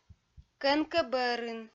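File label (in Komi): "кӧнкӧ бӧрын", Komi, female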